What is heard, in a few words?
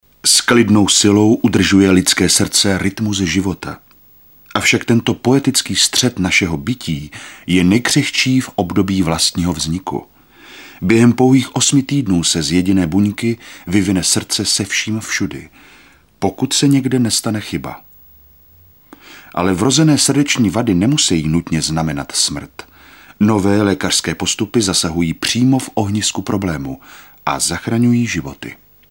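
male Czech documentary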